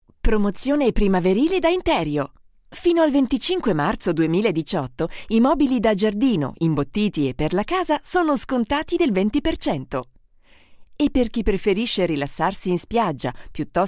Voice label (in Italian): promozione primaverile; interio